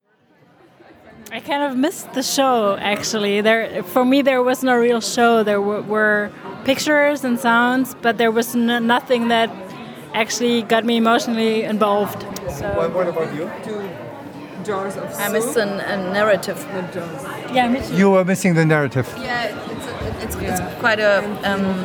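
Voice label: 1 female 1 female English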